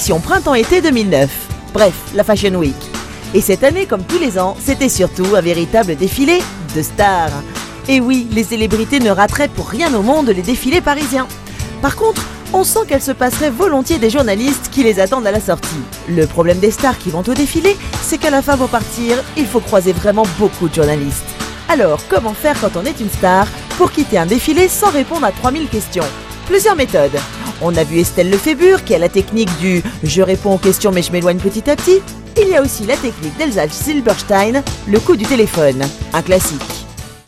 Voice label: Jeune dynamique souriant; voix off; Fashion Week

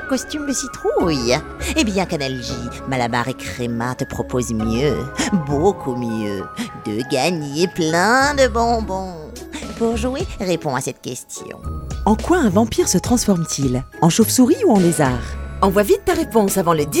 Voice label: Halloween Sorciere voix jeune dynamique; voix off

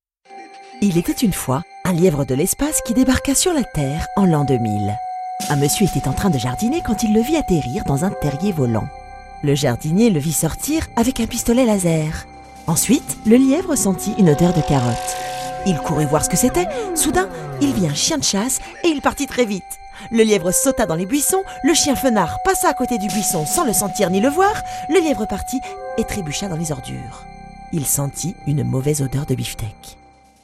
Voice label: Evenementiel naturel souriant; Lapin 2000

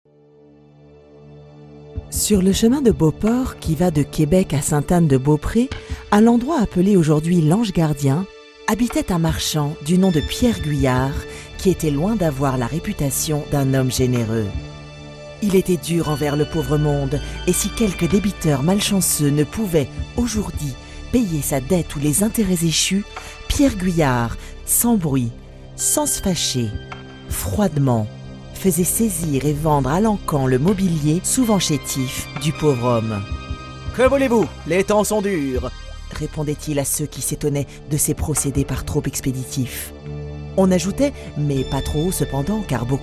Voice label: Grave suspense; voix off; L avare

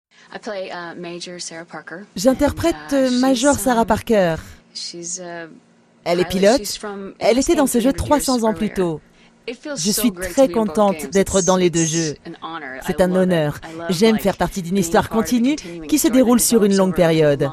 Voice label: Naturel souriant; voix off; Major Sarah Parker

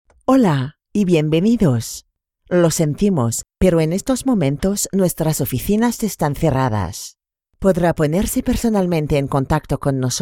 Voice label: ESPAGNOL attente tel

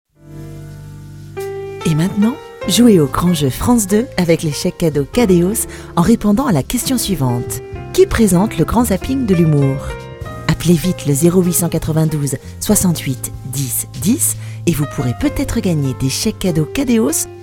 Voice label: Doux intimiste; Kadeos

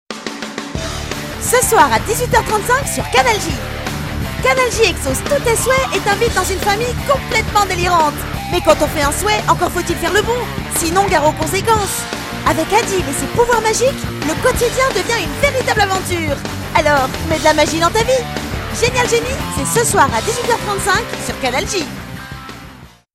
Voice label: Jeune dynamique; Canal J

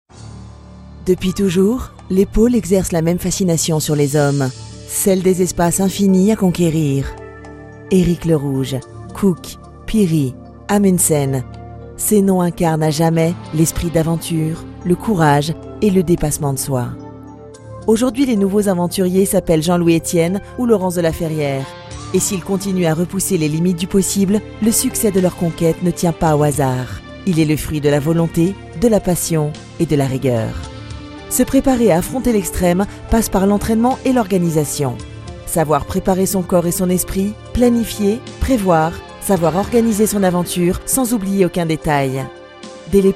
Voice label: Naturel evenementiel; Explorateurs

